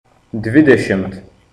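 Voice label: "Twenty", Lithuanian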